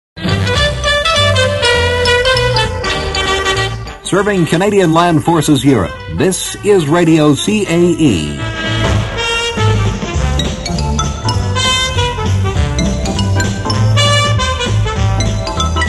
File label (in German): Werbespot; CAE